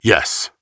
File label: Emote Yes; Warrior; VGS; Paladins